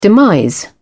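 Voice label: "demise", British English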